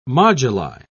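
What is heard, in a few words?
"moduli", British English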